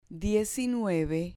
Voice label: "diecinueve" Spanish

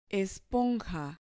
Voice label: "esponja", Spanish

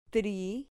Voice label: "tri", Spanish

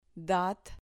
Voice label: "dat", Spanish

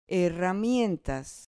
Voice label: "herramientas", Spanish

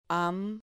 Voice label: "ham", Spanish

